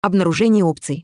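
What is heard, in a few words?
Russian Reloading; EFI Audio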